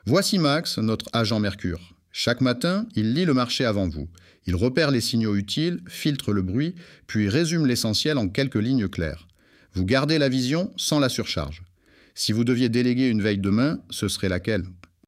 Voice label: voiceover